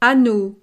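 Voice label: "anneau", French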